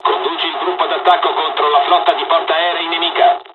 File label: fly, dialogs italian; midway us; War Thunder